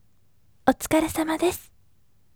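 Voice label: voice "thank you for your hard work" Japanese, gentle; otukaresamadesu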